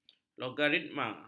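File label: "logaritma", Malay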